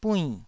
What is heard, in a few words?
"buinn4", taiwanese